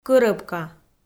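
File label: "кӧрӧбка", Komi, female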